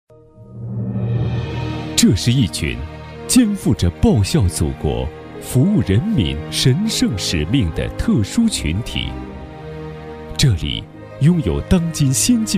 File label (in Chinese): 男 专题; 电力计量